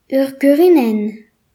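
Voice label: "wreath", Breton; gcompris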